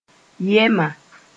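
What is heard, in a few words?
"yɛma", Mossi; hippopotamus (pl.)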